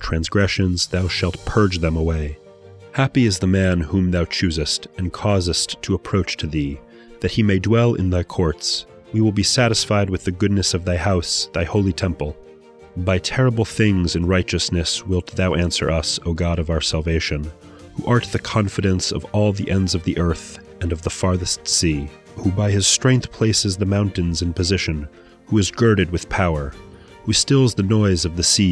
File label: poetry and prayer psalm english